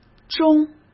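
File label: "中", Mandarin Chinese; chinese flashcards; hsk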